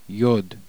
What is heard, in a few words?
yud, heb